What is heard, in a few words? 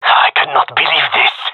scared, gendarmerie male; vo